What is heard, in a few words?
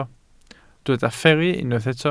"fferru", Welsh